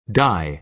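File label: "die" American English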